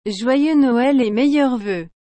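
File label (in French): "Joyeux Noël et meilleurs vœux.", French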